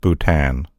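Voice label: "bhutan", English; gcompris; geography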